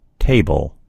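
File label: "table", American English; gcompris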